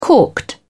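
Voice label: "corked", British English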